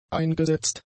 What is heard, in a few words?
"eingesetzt", German; adjectives